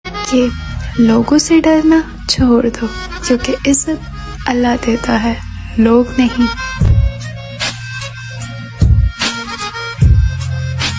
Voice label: Shayari Ringtone; Izzat Allah Deta Hai